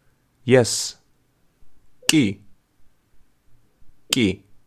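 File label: "yes", Georgian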